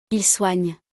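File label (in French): "il soigne", French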